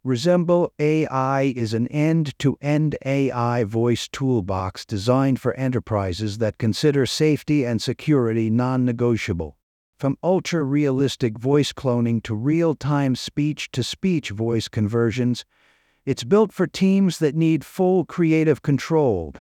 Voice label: Intro male american; Resemble AI